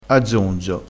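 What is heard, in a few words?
"a zonzo", Italian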